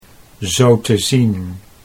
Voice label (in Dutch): zo te zien, Dutch